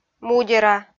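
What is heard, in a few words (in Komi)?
"мудера", Komi, female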